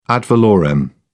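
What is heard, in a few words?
"ad valorem", English, British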